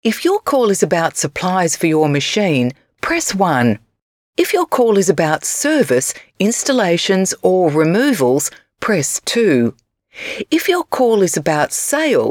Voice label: IVR